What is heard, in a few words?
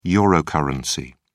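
"eurocurrency", British English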